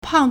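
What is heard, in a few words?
"pang4", Mandarin Chinese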